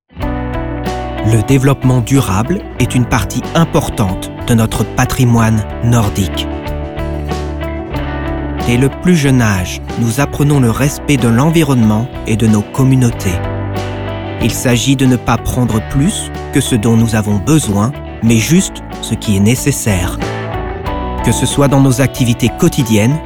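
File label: French, male, narration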